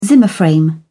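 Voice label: "zimmer frame", British English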